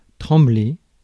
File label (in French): "trembler", Mots francais; Mots francais phonemes